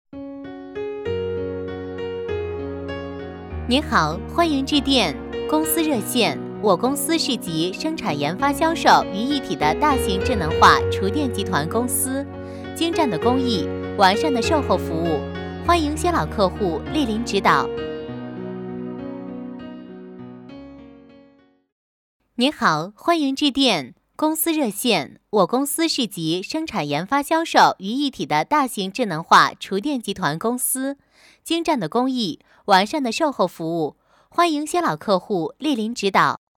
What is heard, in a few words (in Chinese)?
女 甜美亲和; 厨电公司